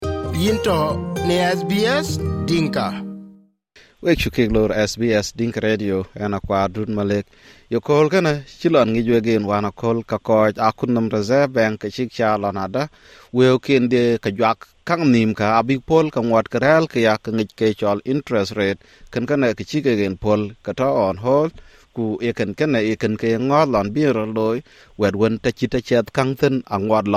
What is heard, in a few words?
dinka, podcast; Ke wëëu ye juäk wëu nhïm ci cɔ̈l aa rɛɛl täntök; RBA; SBS Dinka